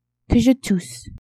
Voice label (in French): "que je tousse", French; tousser